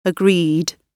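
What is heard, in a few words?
"agreed", British English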